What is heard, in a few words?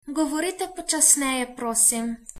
slowly, slovenian